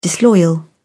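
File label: "disloyal", English, British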